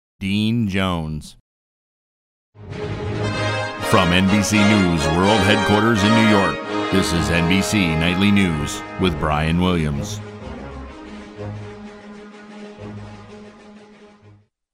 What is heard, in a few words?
Nightly News Open; NBC; Auditions